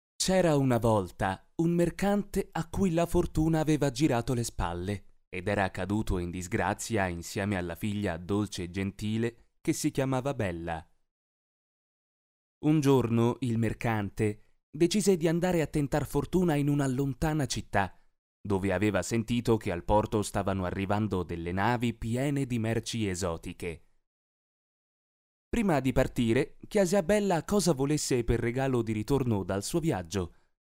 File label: italian narration